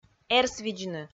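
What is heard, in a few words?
"эрсвидзны", Komi, female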